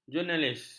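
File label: "jurnalis", Malay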